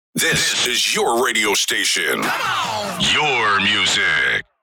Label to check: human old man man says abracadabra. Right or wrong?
Wrong